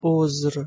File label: "ozr", Persian